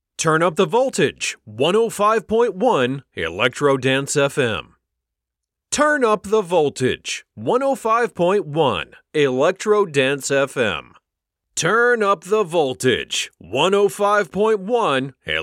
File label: american continuity radio